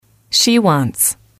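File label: she wants, female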